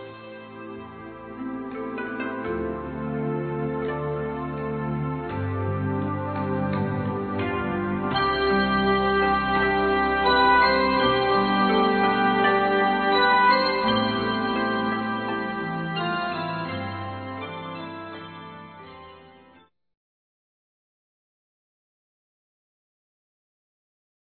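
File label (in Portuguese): Natal neutro feminino, Telemensagens; Natal e Ano novo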